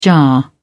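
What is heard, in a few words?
"jar", British English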